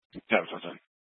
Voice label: Police Department Dispatch, scanner